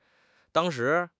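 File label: "dang1 shi2", Mandarin Chinese; HSK; HSK4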